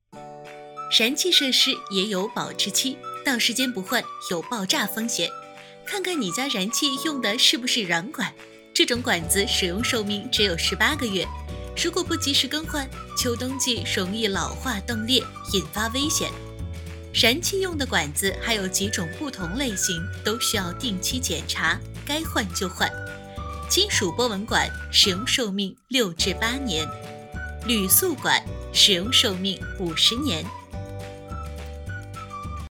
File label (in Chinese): 科普 安全介绍 甜美 女